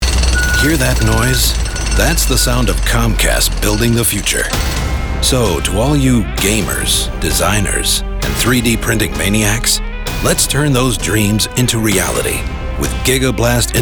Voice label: Commercial MIX